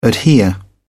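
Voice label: "adhere", British English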